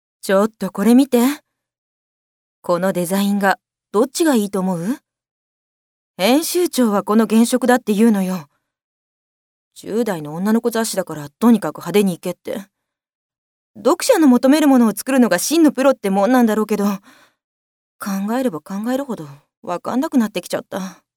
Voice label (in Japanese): zyosei